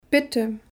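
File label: "Bitte", German; Kapitel 2; Alphabet erste Schritte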